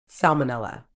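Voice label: "salmonella", medical English, British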